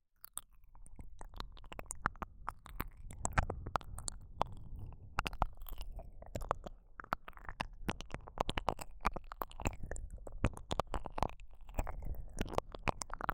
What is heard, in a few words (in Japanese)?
黒耳 右耳 30分に1回好き, ASMR